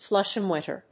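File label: "Flushometer", English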